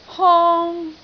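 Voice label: "hong1", Cantonese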